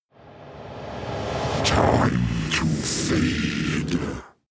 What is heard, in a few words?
demon dark voice reverse time to feed